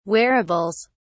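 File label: "wearables", British English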